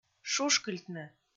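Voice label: "шушкыльтны", Komi, female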